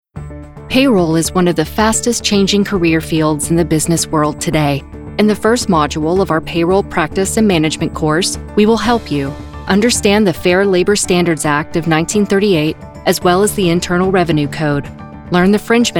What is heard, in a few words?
E Learning FORMAL